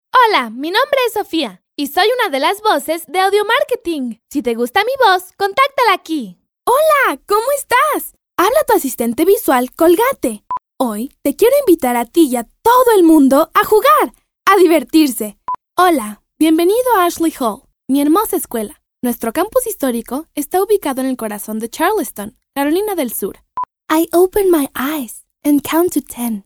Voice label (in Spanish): locutora nina; infantiles